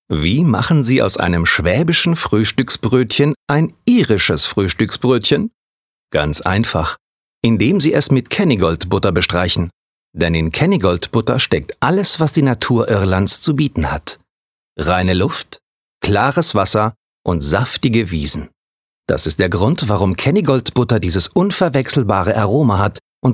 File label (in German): Werbung; Kennygold